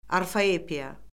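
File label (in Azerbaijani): "orfoepiya", Azerbaijani; Orfoqrafiya Lüğəti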